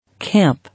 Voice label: "Camp", English

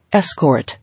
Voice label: "Escort", English

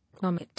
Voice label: "Vomit", English

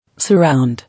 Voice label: "Surround", English

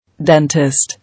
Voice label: "Dentist", English

